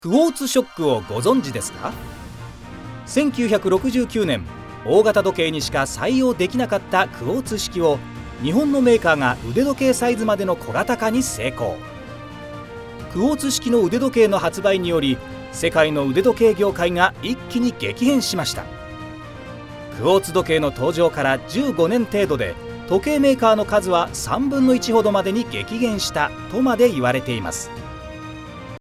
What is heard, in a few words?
Narration